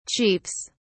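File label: "chiefs", British English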